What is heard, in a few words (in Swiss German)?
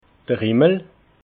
"himmel", alsace